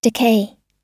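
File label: "decay", English; oxford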